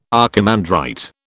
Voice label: "archimandrite", British